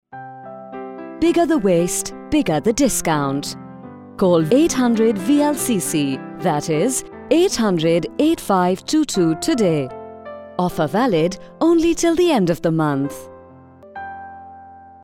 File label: Radio Spot; VLCC Dubai